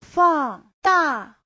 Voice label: "fang4 da4", chinese; 放大; phóng to

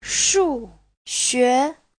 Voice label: "shu4xue2", Chinese; 数学; (danh từ) môn Toán; Giáo trình chuẩn HSK3

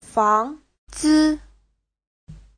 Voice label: "fang2 zi5", chinese; Giáo trình Hán ngữ

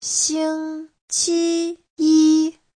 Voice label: "xing1 qi1 yi1", Chinese

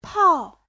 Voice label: "pào", Chinese

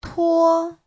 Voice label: "tuo1", Chinese; Giáo trình Hán ngữ

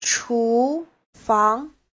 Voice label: "chu2 fang2", chinese; Giáo trình Hán ngữ

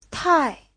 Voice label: "tai4", Chinese

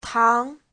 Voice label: "tang2", Chinese; 糖; đường, kẹo

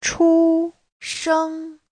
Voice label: "chu1 sheng1", chinese; Giáo trình Hán ngữ

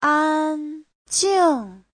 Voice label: "an1jing4", Chinese; 安静; (tính từ) yên tĩnh; Giáo trình chuẩn HSK3

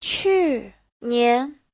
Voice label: "qu4nian2", Chinese

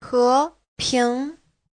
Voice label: "he2 ping2", chinese; Giáo trình Hán ngữ

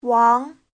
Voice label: "wang2", Chinese

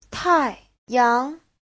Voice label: "tai4yang2", Chinese

